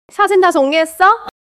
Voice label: neutral, monologue

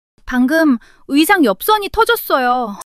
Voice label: fluster; monologue

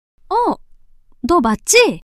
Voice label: surprise, monologue

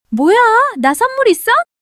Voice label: surprise, monologue

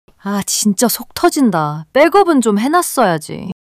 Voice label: anger; monologue

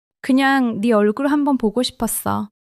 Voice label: joy; monologue

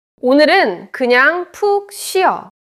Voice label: neutral, monologue

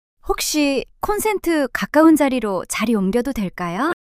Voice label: shy; monologue

